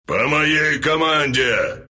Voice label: attack, ru; Wraith; Dota 2